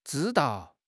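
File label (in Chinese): "zhi1 dao4", Chinese; HSK; HSK2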